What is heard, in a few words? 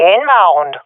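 omw, swat female; vo; Counter Strike Model Pack; CS:GO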